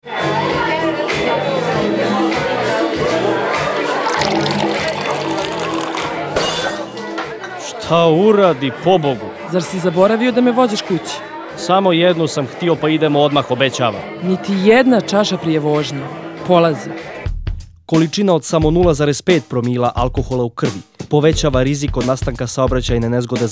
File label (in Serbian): Radio džingl